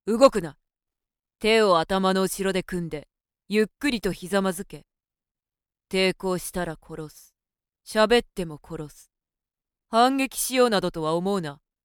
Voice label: female